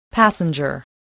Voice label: "passenger", English, voice